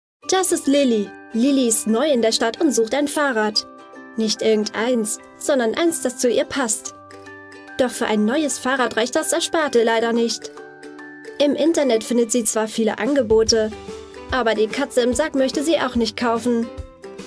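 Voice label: German explainer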